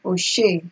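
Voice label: "original", Nigerian Pidgin, female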